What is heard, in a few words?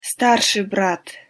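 "starsij brat", Russian pronunciation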